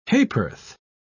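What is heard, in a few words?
"ha'p'orth", British English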